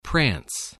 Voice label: "prance", voice, spelling